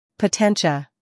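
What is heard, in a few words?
"humor", British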